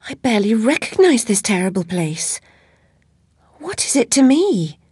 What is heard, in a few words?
chitchat; svencoop; Alice